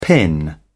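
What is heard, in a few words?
"pin", British English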